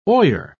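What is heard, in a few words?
"oyer", British English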